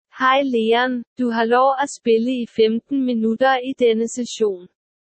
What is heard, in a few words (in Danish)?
speech sample da